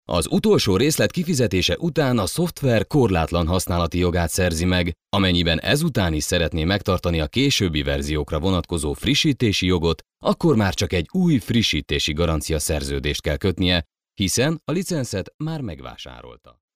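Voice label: Hungarian, male, e-learning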